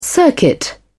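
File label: "circuit", British English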